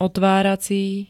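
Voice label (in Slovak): "otvárací", Slovak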